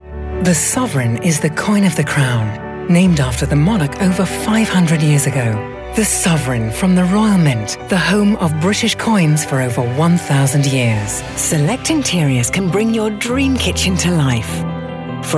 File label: Commercial